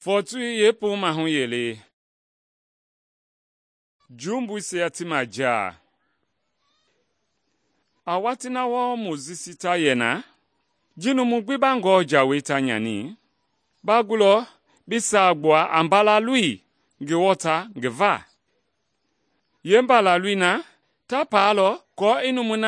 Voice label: mende bsl nt drama; good news mende kpa